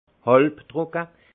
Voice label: "halbtrock", alsace; vin; demi sec

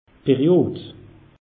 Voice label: "period", alsace; meteo

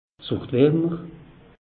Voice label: "wurd warmer", alsace; meteo

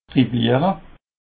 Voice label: "dribbler", Alsace

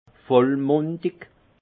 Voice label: "vollmundig", alsace; vin

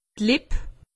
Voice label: "lipp", alsace; medecine

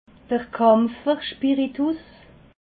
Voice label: "kampferspiritus", alsace

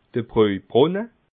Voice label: "brojbrunne", alsace

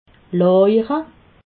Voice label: "lajere", alsace

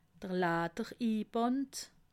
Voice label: "ladderinband", alsace

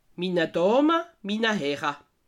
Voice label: "dama", alsace

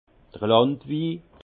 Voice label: "landwi", alsace; vin; vin du pays

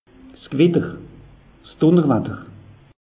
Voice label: "gewitter", alsace; meteo

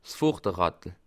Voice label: "vorder", alsace

